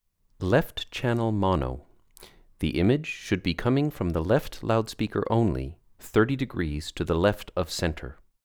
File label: speech 20 percent thd